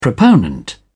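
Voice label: "proponent", British English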